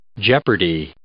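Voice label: "jeopardy", English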